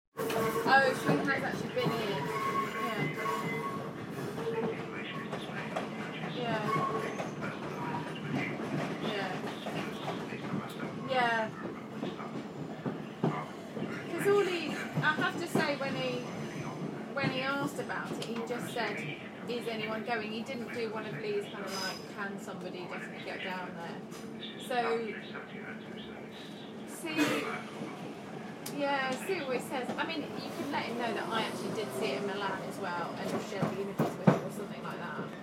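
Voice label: annoyingly loud lady behind me on train battles the train announcement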